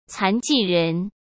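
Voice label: "残疾人", Mandarin Chinese; chinese flashcards; hsk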